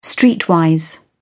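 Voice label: "streetwise", English, British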